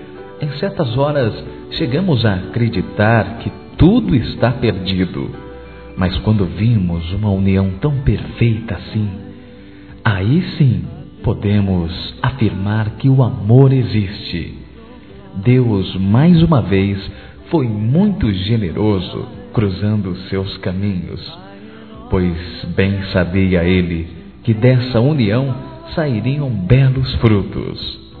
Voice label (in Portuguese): Aniversário Casamento Geral Masculino; Telemensagens 2017; Aniversários